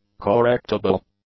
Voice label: "correctable", English; adjectives

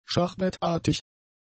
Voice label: "schachbrettartig", german; adjectives

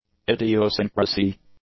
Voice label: "idiosyncrasy", English; nouns

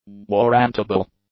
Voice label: "warrantable", English; adjectives

